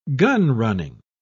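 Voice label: "gunrunning", British English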